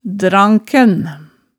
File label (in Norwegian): "dranken", hemsedalsmaalet